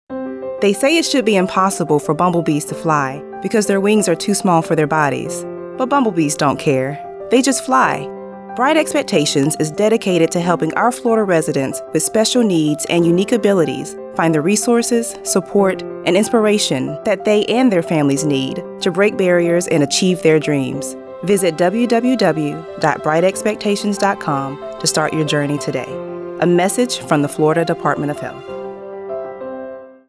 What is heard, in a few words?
PSA Radio; bright expectations